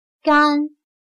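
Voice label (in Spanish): "甘", chino mandarin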